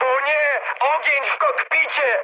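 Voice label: Polish on fire mood high; speech; War Thunder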